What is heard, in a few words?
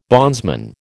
"bondsman", American